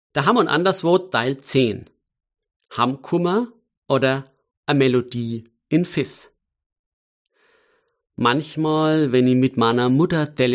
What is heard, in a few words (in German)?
Mundart